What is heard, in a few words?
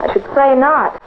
"i should say not", female; Voice